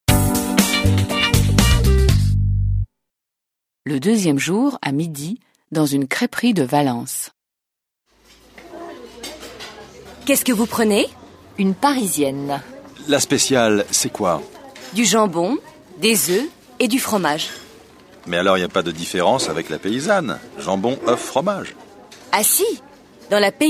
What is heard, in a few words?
French